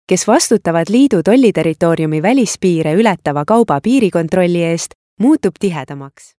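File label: Estonian, female, narration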